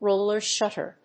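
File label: "roller shutter", English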